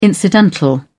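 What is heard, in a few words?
"incidental", British English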